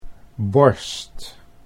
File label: "borst", Dutch